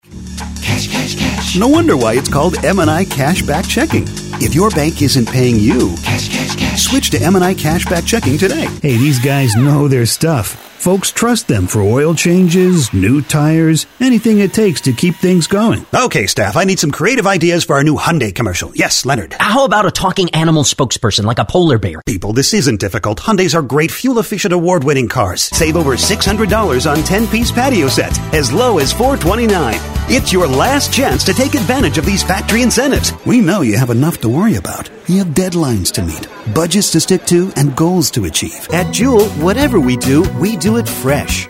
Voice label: Radio